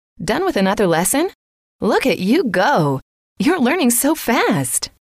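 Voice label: done With Another Lesson Look At You Go You Are Learning So Fast, female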